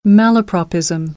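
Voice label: "malapropism", American English; AmericanBritish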